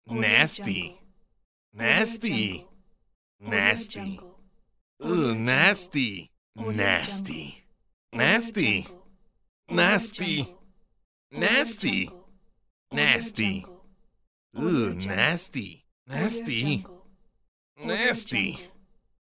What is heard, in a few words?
male saying nasty pack